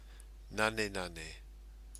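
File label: "nanenane", Māori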